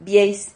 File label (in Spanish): "vieis", Silabas